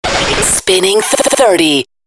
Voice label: spinning liner